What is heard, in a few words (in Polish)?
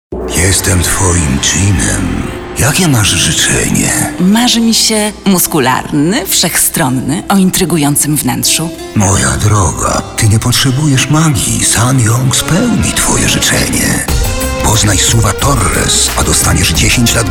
reklama ssangyong